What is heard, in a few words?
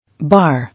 "Bar", English